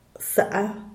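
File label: "saa", Zapotec, Díaz Ordaz; pariente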